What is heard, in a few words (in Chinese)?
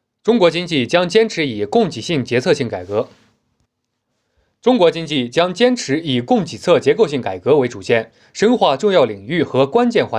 新闻